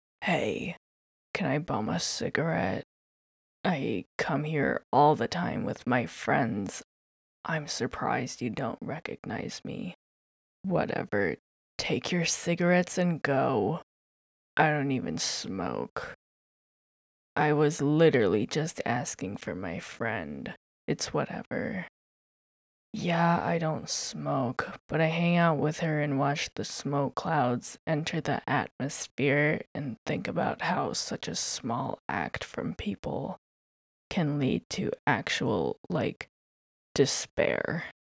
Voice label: Goth Teen, voice acting